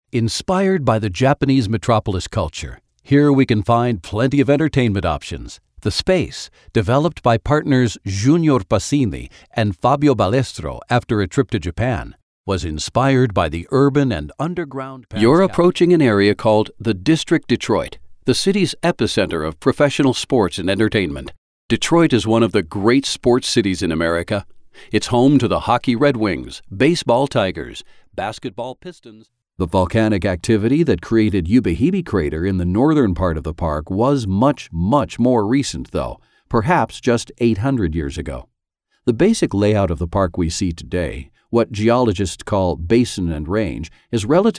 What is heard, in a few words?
Tour Guide